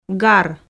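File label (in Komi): "гар", Komi, female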